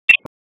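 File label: Police District Dispatch; wake; p25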